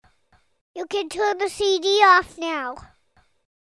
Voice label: Vocal Instructions; Worship Music